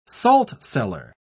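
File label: "saltcellar", British English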